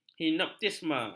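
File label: "hipnotisme", Malay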